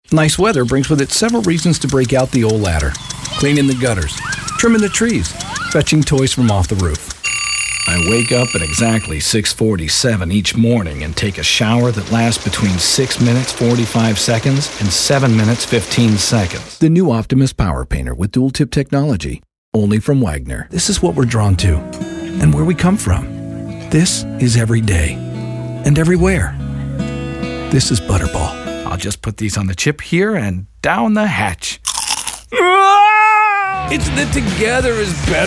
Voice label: Commercials